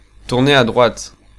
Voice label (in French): Turn Right, French, male